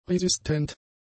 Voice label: "resistent", German; adjectives